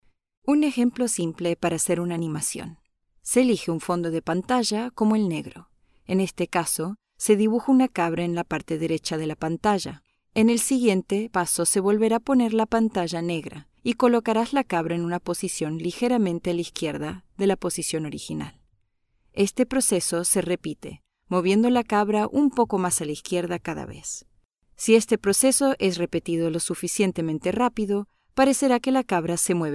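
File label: Spanish Latin America female narrative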